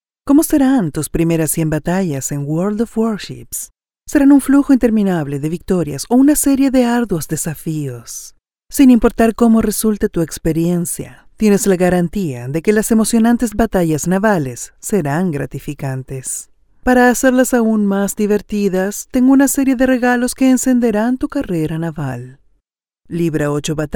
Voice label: CHARACTER, Latin Spanish Neutral Female, Character, Cartoon, Gaming